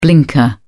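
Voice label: "blinker", British English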